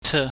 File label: "teu", pingyam